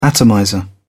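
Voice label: "atomizer", English, uk British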